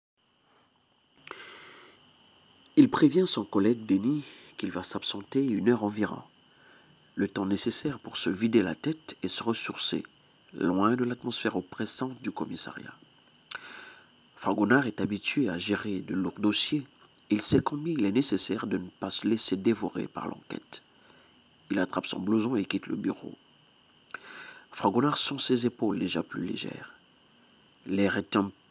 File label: african french male; African French-speakers